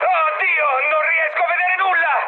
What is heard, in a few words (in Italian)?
searchlite, mood high, speech it; War Thunder